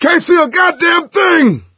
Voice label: misc directional, coach, survivor voice

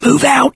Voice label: emphatic go quiet, mechanic, survivor, voice; source engine; left 4 dead 2

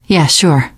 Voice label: yes, teengirl; survivor voice

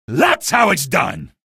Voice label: kill, male; video games; npc; source engine; episodic; episode 1

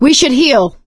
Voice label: suggest health, survivor voice; source engine; left 4 dead 2; producer

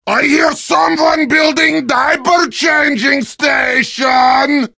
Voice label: heavy taunts; video games; source engine; tf

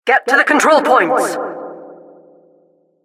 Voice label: announcer cap incite

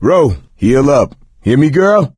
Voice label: suggest health, coach, survivor voice; video game; source engine; left 4 dead 2

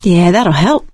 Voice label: pain relieft pills, survivor voice; source engine; left 4 dead 2; producer

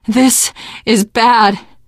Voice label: reaction negative, teen girl; source engine; left 4 dead 2; survivor

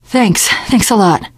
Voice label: thanks, teen girl

